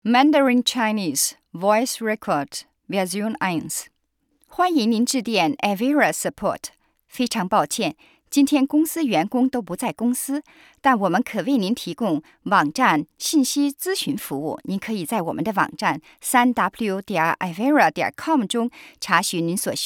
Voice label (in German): chinesisch mandarin telefon; sprecher